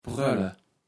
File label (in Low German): "Prölle", Platt